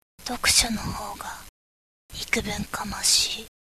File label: girl